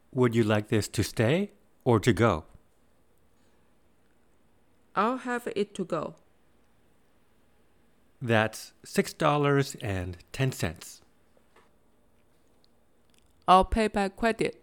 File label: basic english dialogs fastfood